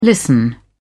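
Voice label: "listen", British English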